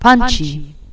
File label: punchy, announcer